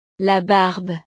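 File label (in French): "la barbe", French; partes del cuerpo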